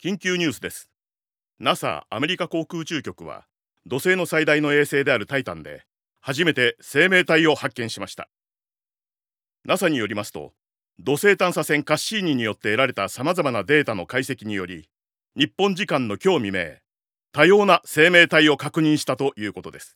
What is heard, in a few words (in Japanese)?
ニュース速報